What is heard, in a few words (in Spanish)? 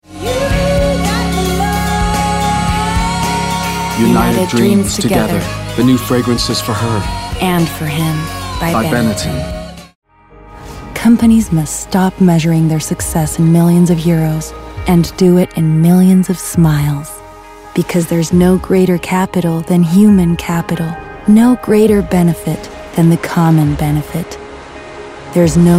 Inspiradora, EN